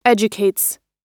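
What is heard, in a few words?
"educates", American English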